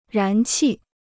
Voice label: "燃气", Chinese

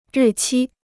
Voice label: "日期", Chinese

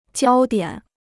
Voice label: "焦点", Chinese

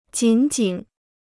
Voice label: "紧紧", Chinese

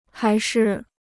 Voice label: "还是", Chinese

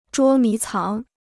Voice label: "捉迷藏", Chinese

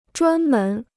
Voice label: "专门", Chinese

